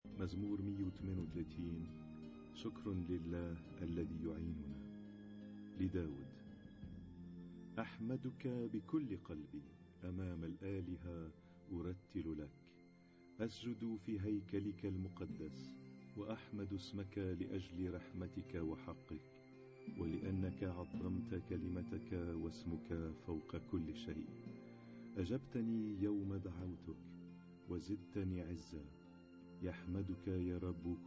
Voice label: Arabic Psalm Zaboor